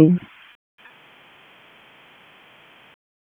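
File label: Police Southwest District Dispatch; Raleigh; p25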